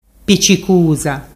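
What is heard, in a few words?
"picicusa", Ligurian dialect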